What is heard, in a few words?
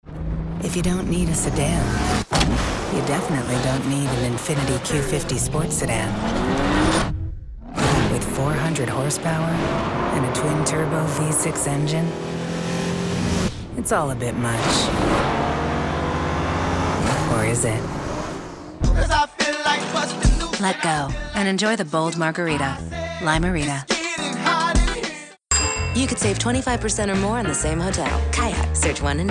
Commercial, female voices; Infiniti at top